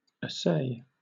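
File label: "assay" English, British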